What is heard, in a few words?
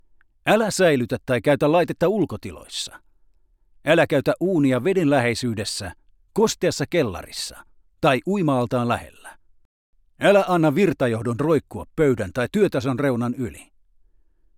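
finnish male narration; voice talents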